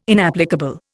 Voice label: "inapplicable", Indian